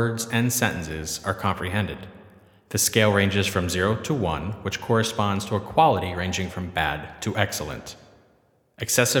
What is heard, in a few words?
Untreated Speech; Britton Falls